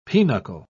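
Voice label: "pinocle", British English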